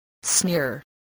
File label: "sneer", English voice